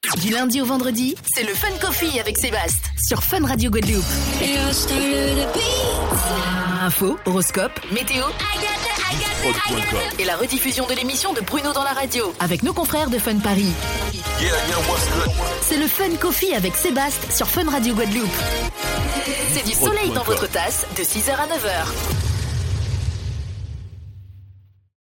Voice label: Auto promo; Fun Guadeloupe; Fun Coffee

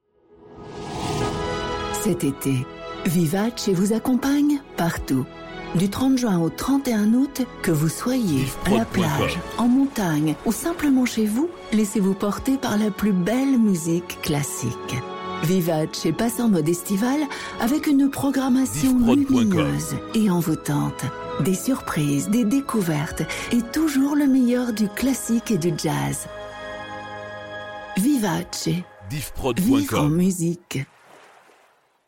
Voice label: Spot Promo Ete

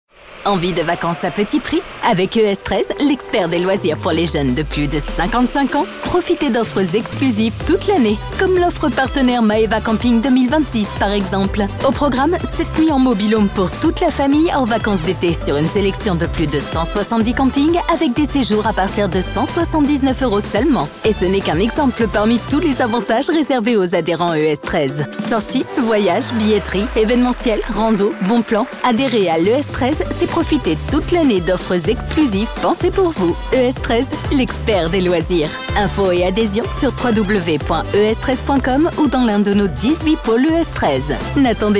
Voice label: Spot Printemps

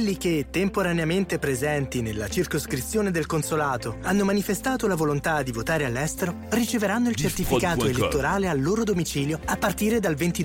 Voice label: Spot Referendum Consulat Italie Version IT, publicite

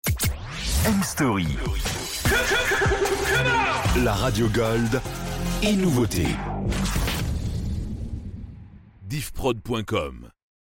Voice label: Sweep Gold et Nouveautes Pop

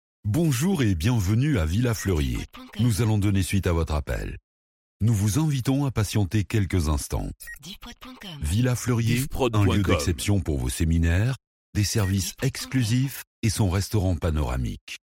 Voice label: Restaurant Gastronomique Pre decroche Voix, telephonie svi

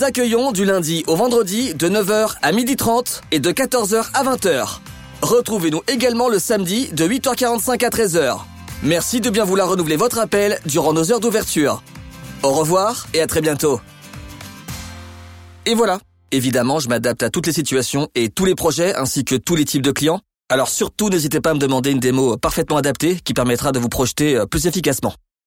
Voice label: Voix Telephonie

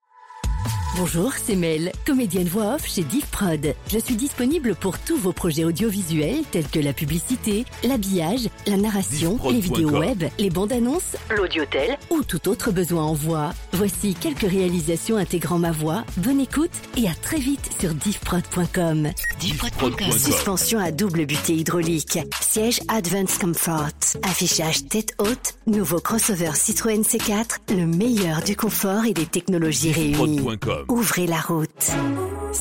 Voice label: VO Generale

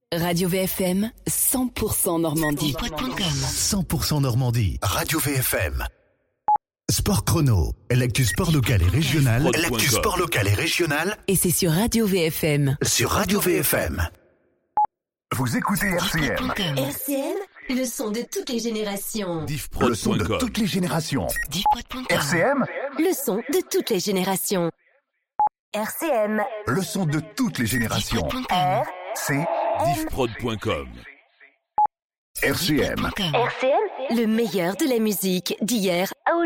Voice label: Drops A cappellas, habillage

